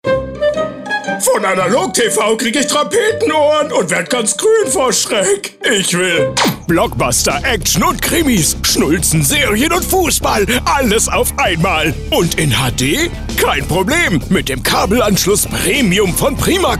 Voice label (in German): Funkspot; Primacom Shrek